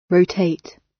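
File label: "rotate", British; Space, the planets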